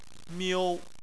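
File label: "miu", Chinese, first tone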